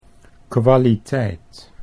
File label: "kwaliteit", Dutch